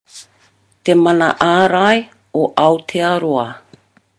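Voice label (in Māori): "te mana arai o aotearoa", Māori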